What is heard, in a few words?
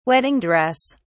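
"wedding dress", British English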